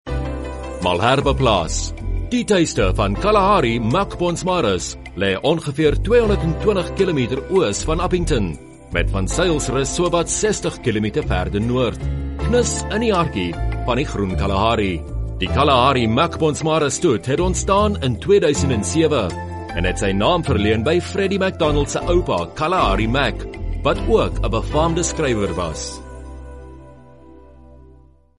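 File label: Afrikaans male Narration; voice over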